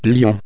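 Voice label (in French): "lion", French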